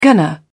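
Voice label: "gonna", British English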